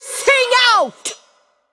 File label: Female Iron Vrykul Attack